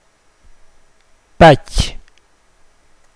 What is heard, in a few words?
nest, Mokilese